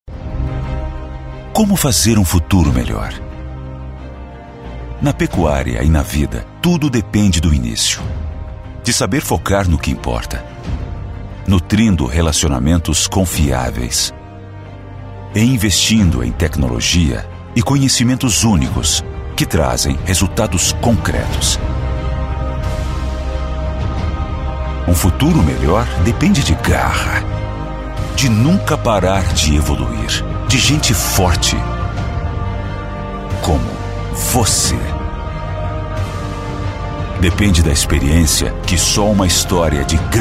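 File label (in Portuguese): Português - Brasileiro, Voz Padrão - Grave, Masculino; CRV: Better cows | Better life.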